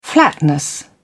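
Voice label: "flatness", British English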